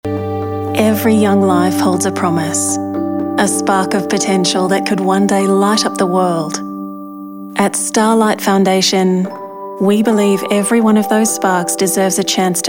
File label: Commercial; Starlight